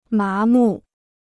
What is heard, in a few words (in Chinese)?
"麻木", Chinese